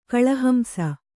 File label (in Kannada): "ಕಳಹಂಸ", Kannada